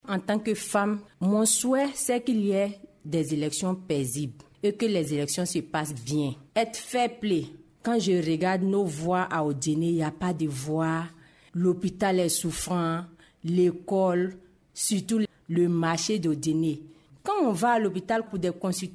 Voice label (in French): vox pop les attentes des populations d'Odienne